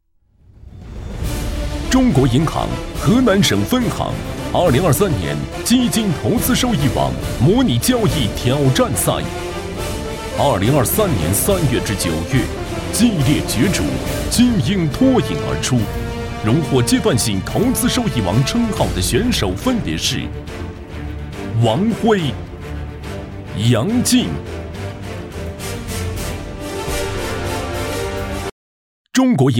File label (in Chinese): 男 专题 大气颁奖